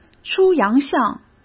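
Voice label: "出洋相", Mandarin Chinese; chinese flashcards; hsk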